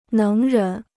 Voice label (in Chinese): "能人", Chinese